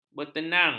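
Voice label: "bertenang", Malay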